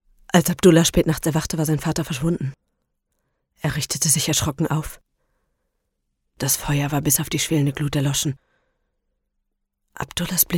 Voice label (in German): Reiki Hoerbuch; LOFT VOICES